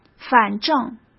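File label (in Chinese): "反正", Mandarin Chinese; hsk; chinese-flashcards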